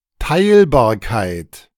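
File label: "Teilbarkeit", German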